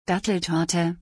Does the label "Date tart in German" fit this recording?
Yes